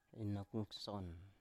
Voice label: "inok ngson", Biak